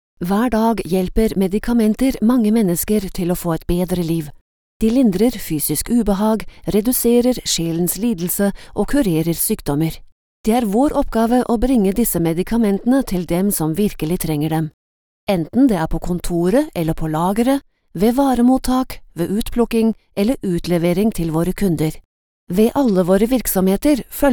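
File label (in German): Norwegian, Kommentar, Neutral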